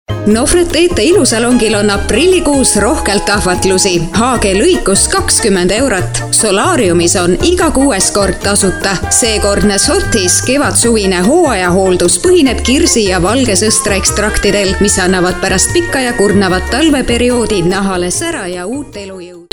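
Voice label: Estonian, female, narration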